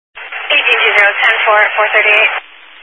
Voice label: Highway Patrol, Phoenix Metro East Area, scanner

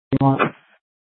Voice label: Scottsdale PD Sth Dispatch, scanner

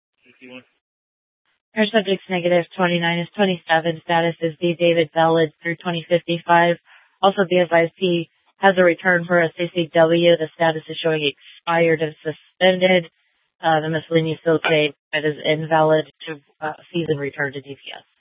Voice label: Tempe PD South, scanner; Regional Wireless Cooperative (RWC) - Simulcast F- Tempe; A04 - South

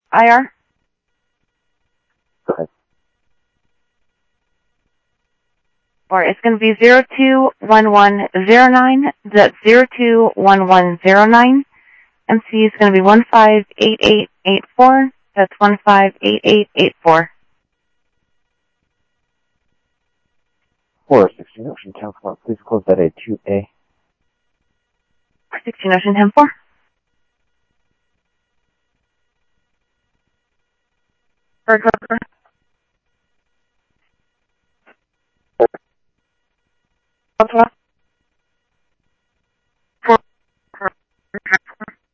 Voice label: Info Sheriff Common, scanner audio trunk

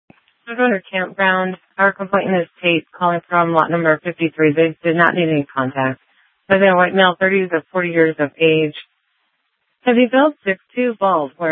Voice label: Sheriff, scanner P25

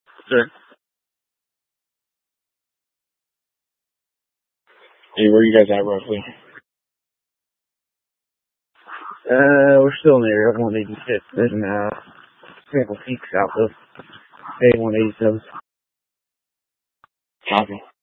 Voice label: Mutual Aid-Intersystem Interop B, scanner

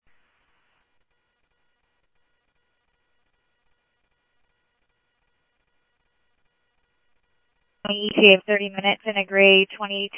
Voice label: scanner audio

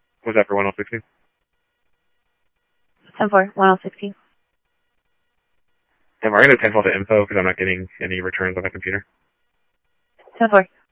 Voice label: Scottsdale Police, scanner audio

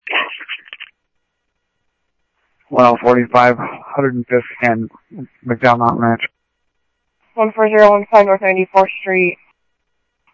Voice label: Scottsdale PD Scottsdale Police, scanner audio; ThompsonPeakHigh; SCTSDLE PD - PD2

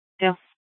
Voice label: Fire Dispatch; H- Scottsdale

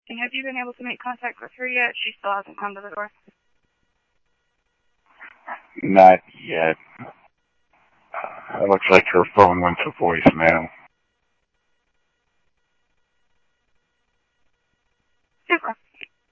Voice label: Police, scanner audio trunk; SCTSDLE PD - PD2; H-Scottsdale; ScottsdalePolice

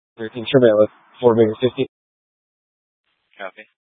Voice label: Mesa PD Dispatch

